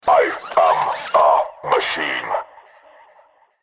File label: SYNTHETIC GREETING